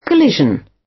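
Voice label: "collision", British English; Space, the planets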